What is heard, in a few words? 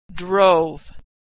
verb "drove", pronunciation